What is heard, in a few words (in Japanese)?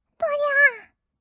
どりゃあー, kawaii voice; ASMR; 色々なワンショット; 1200 kawaii voice!!